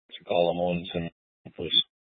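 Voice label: Incident Tac 3, Scanner audio recording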